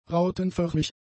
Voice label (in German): "rautenförmig", German; adjectives